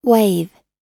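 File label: "wave", English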